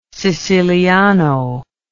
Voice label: "siciliano", words